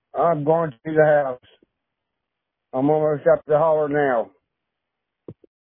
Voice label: Voicemail